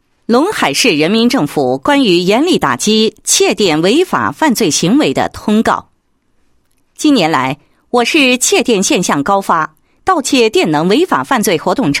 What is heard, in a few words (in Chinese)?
女 通告 关于严厉打击窃电违法犯罪行为的通告